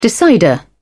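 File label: "decider", English, British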